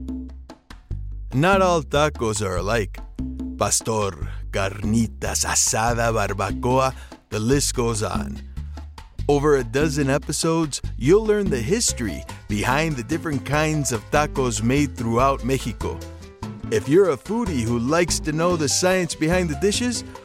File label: NARRATION; TACOS